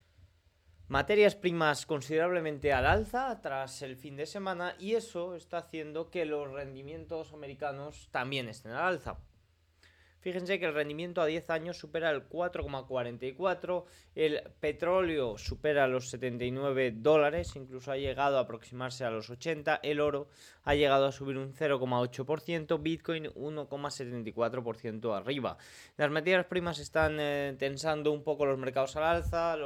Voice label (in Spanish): radio; noticias bolsa